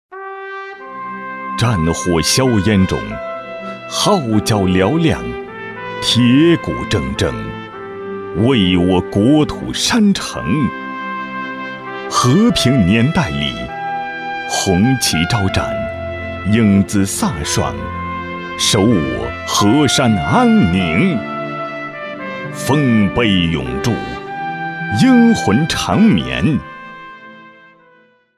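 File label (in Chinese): 专题大气感动; 八一建军节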